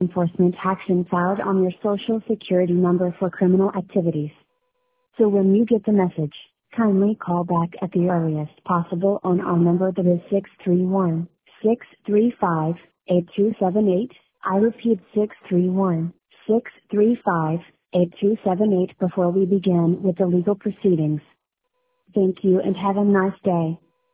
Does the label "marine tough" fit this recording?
No